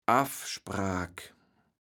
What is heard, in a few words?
"Affspraak", platt